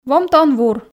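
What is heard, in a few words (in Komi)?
"вомтӧ он вур", Komi, female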